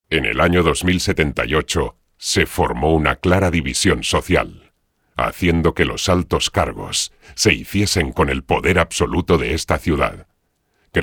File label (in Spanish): Videojuego Spanish VO